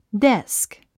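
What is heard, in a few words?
"desk", English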